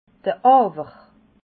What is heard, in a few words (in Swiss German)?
"awer", alsace